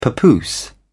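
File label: "papoose", British English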